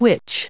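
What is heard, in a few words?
"which", US English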